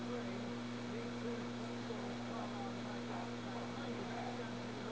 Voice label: male ghost voice